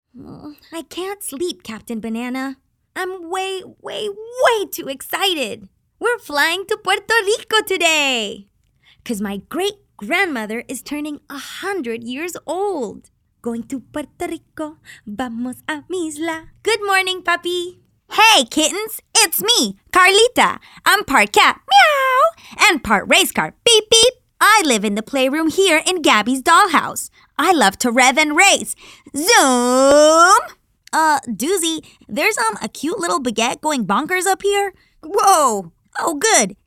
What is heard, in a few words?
Kids Animations VO